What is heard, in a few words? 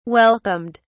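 "welcomed", British English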